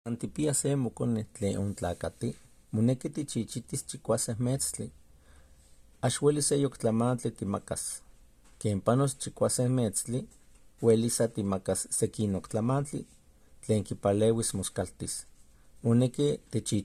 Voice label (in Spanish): Recomendacion en Nahuatl